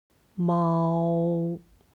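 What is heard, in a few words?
"mao", Chinese; 猫; ネコ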